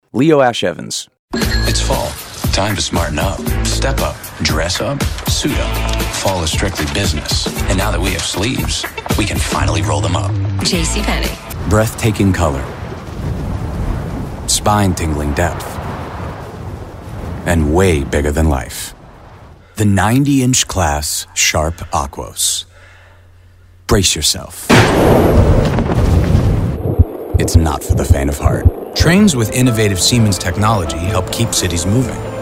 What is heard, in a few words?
Voice Over